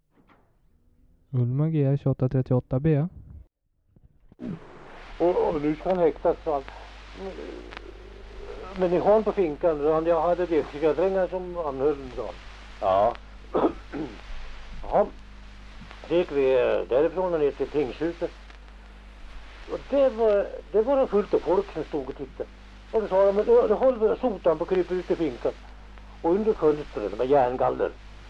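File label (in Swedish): Valbo Gästrikland, dialekter; Folkminnen; Svenska sagor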